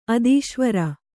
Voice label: "ಅಧೀಶ್ವರ", Kannada

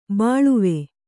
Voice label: "ಬಾಳುವೆ", Kannada; bāḷuve; noun; life-time.; progress; development; prosperity.

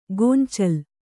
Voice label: "ಗೊಂಚಲ್", kannada

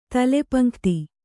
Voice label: "ತಲೆಪಂಕ್ತಿ", Kannada

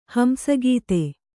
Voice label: "ಹಂಸಗತಿ", Kannada

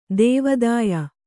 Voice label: "ದೇವಾದಾಯ", Kannada

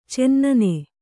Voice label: "ಚೆನ್ನನೆ", Kannada

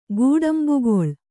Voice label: "ಕಟ್ಟಂಬಕಳ", Kannada

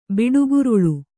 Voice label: "ಬಿಡುಗುರುಳು", Kannada

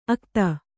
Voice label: "ಆಗತೆ", Kannada

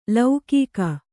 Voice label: "ಲೌಕಿಕ", Kannada

